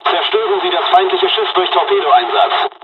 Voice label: torpedo attack, dialogs german; War Thunder